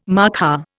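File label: "markhor", Indian English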